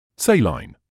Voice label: "saline", English

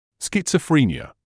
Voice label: "schizophrenia", English

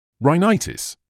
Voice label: "rhinitis", English

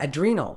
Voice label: "adrenal", Medical English; ædˈriː.nəl; ad-REE-nuhl

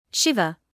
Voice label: "shiver", medical English

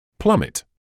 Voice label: "plummet", English

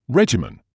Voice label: "regimen", English

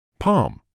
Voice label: "palm", English

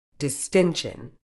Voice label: "distension", uk

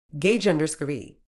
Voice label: "gauge", medical English

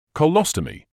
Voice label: "colostomy", medical english uk; kuh-LOSS-tuh-mee; kəˈlɒs.tə.miː

